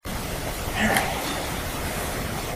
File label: unexplainable man s voice; Theater 1 walk thru